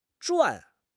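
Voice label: "zhuan4", Mandarin Chinese; HSK; HSK4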